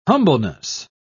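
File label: "humbleness", English, British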